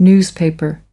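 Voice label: "newspaper", English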